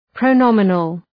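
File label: "pronominal", English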